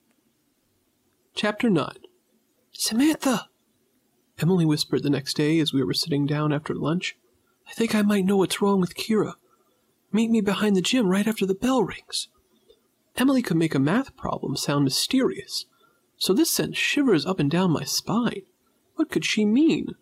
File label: Narrate; Crisp, professional